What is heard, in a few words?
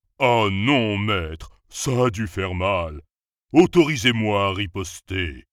monster fr